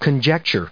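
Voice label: "conjecture", US English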